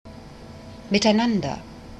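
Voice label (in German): "miteinander", German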